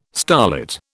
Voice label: "starlit", British

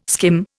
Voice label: "skim", Indian

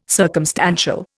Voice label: "circumstantial", Indian

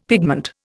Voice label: "pigment", Indian; Dictionary

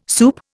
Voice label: "soup", Indian

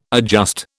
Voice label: "adjust", British

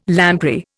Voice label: "lamprey", Indian

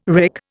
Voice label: "wrick", Indian

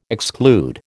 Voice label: "exclude", American

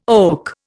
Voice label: "oak", Indian

